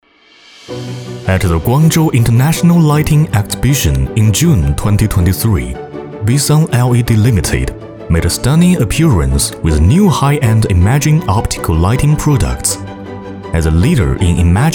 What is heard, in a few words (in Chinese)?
男 英文 形象片